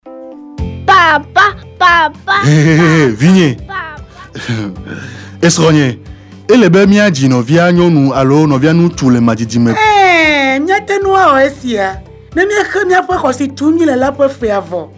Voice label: Spot, Ewe

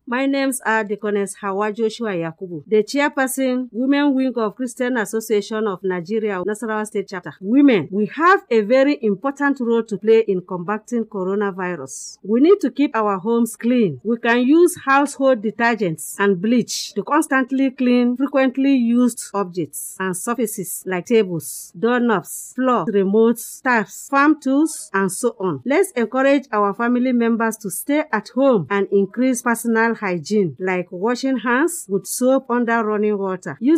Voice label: FEMALE, ENG

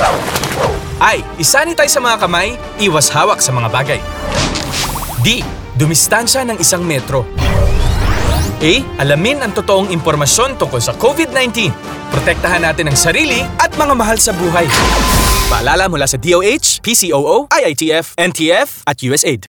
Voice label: BIDA Radio Commercial

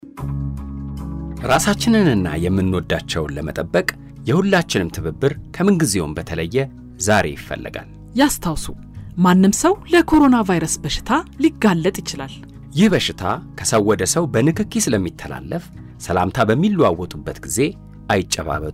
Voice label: Physical Distancing COVID PSA Amharic

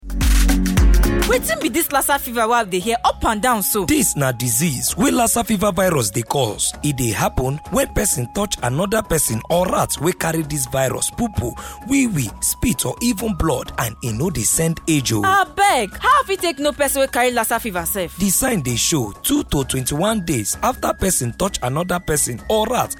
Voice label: Spot, Pidgin; Lassa Fever

